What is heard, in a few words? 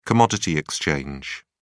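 "commodity exchange", English, British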